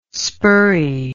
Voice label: "spurry", English